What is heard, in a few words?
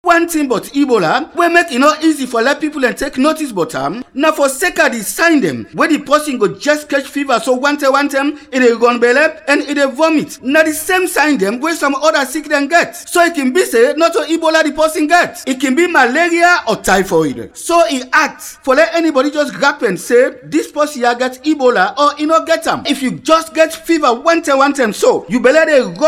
Krio, radio spots; ebola